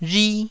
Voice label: "rhi1", taiwanese

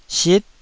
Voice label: "sit9", Taiwanese syllable

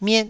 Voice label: "miet4", Taiwanese

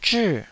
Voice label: "zhii4", taiwanese

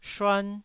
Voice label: "shuan1", taiwanese

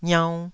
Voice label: "iaunn2", taiwanese syllables

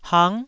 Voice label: "hang9", Taiwanese syllable

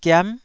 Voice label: "giam9", Taiwanese syllable

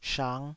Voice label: "shang5", taiwanese

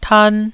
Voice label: "tan1", Taiwanese

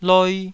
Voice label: "loi2", Taiwanese syllable

